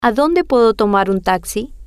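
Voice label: Adonde Puedo Tomar Taxi, Spanish, female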